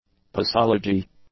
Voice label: "posology", English

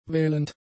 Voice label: "wählend", German; adjectives

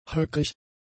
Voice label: "höckrig", German; adjectives

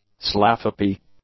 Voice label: "slaphappy", English; adjectives

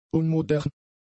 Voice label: "unmodern", German; adjectives

